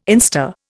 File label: "instar", Indian